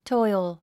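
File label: "toil", English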